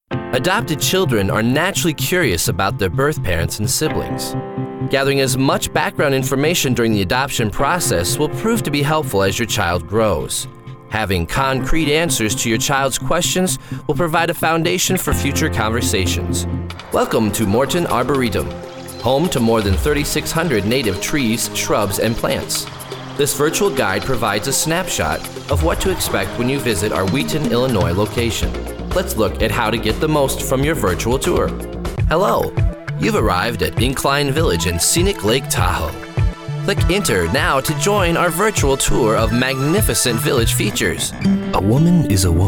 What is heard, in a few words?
narration with music